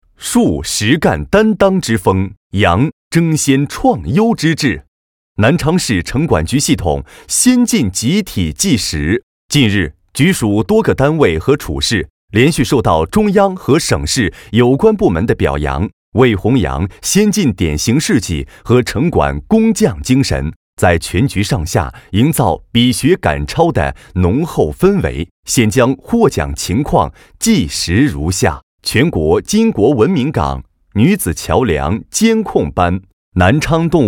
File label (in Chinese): 专题 党建 城管 自然; 南昌城管